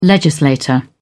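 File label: "legislator", British English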